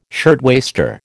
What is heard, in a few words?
"shirtwaister", American